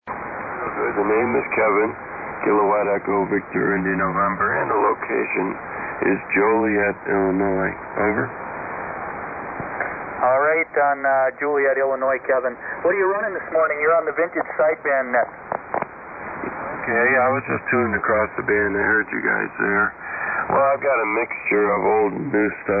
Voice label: Icom 746 Pro & Heathkit SB-230; Joliet, IL.